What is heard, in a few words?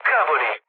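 Italian trick mood low, speech; War Thunder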